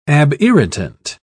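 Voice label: "abirritant", British English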